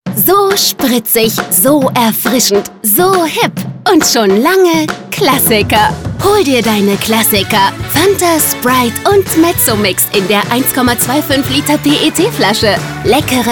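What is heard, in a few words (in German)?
ladenfunk; rewe cocacola fanta sprite mezzomix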